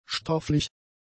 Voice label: "stofflich", German; adjectives